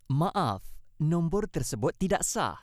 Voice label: IVR Malay

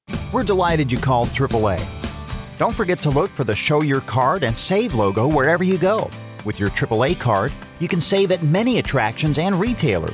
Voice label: On Hold Messaging

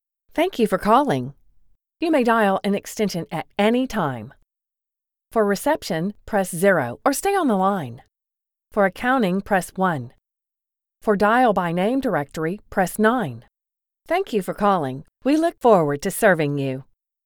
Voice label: Phone message

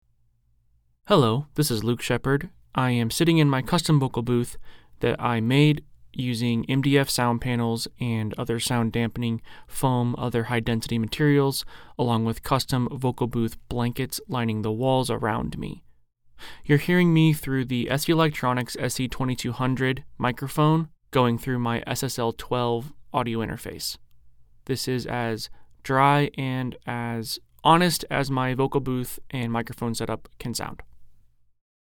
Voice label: Dry Studio

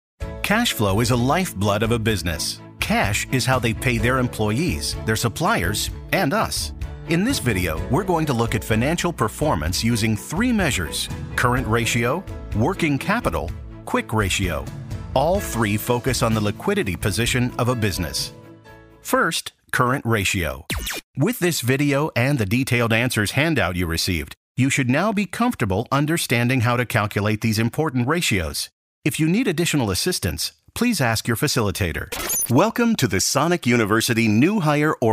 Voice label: eLearning

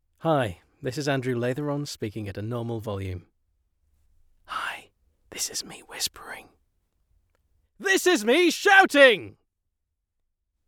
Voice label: Raw Studio Sample

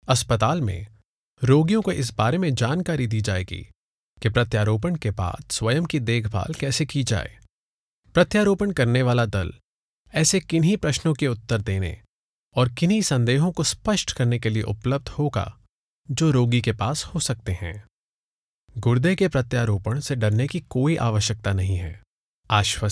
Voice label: Hindi

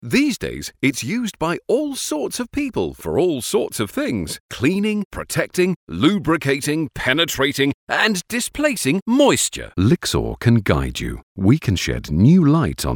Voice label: UK British English Web Explainer deliveries

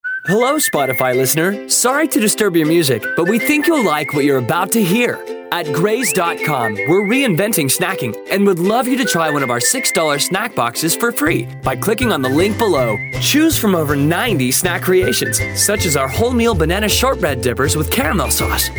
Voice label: Commercial Male; Graze

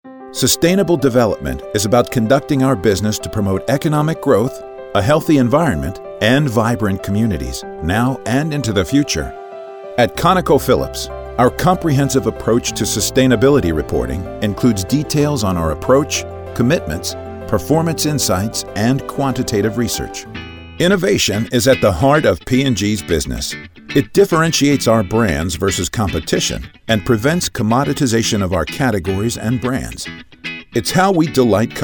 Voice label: Corporate Narration

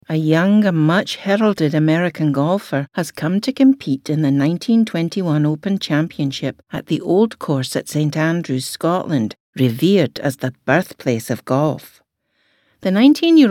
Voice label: Scottish Accent Doc; Bobby Jones

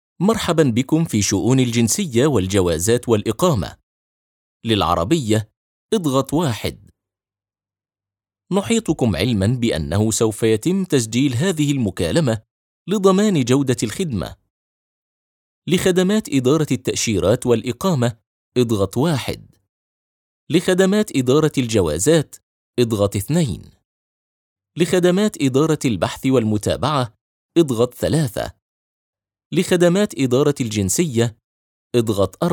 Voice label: IVR; GDRFA

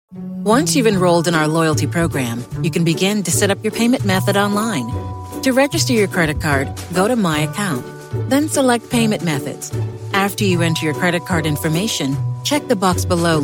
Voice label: Spot; LOYALTY PRO

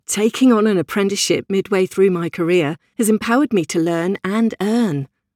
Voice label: Radio Ad Natural Vox Pop

